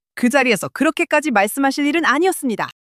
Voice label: anger; monologue